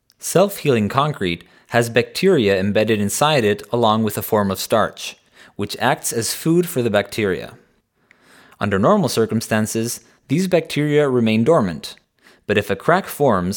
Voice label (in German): Santiago englisch